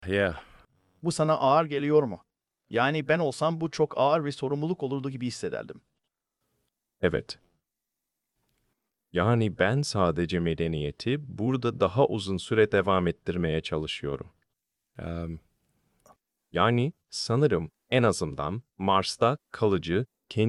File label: turkish; Subformer